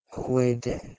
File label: "h1weidhh1" PIE